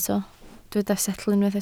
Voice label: "setl", Welsh

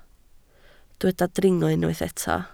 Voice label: "dringo", Welsh

